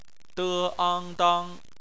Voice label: "dang", Chinese; phonetics; bpmf